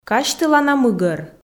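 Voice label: "казьтылана мыгӧр", Komi, female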